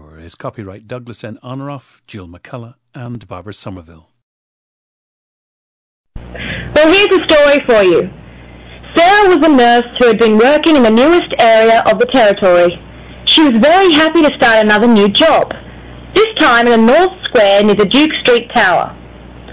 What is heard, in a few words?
australia